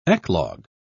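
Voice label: "eclogue", British English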